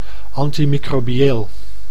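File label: "antimicrobieel", Dutch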